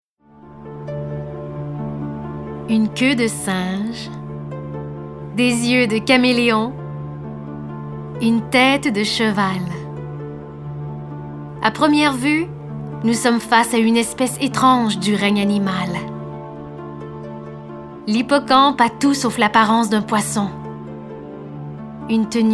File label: Narration